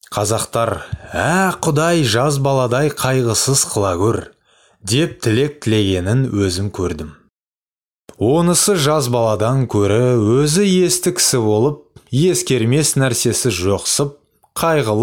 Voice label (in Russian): КАЗ, Дикторы, Озвучка